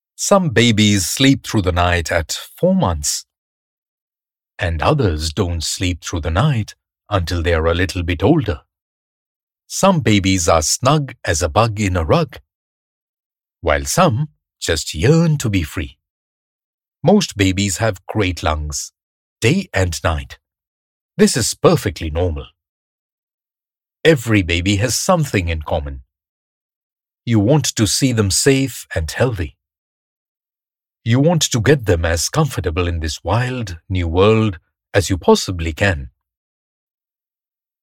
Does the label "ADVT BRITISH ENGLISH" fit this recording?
Yes